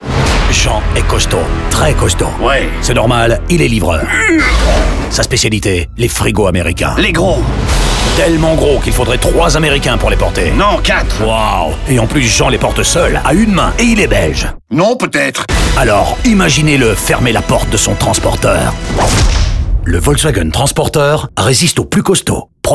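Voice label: radio, french; vw gamme; livreur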